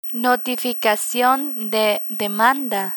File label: "notificacion de demanda", Spanish; legal terminology